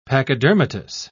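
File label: "pachydermatous", British English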